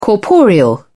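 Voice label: "corporeal", British English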